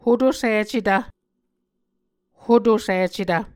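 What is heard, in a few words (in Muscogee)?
"hotosicetv", Muscogee word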